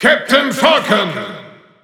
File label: German Announcer; Captain Falcon; SSBU